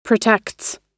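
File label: "protects", US English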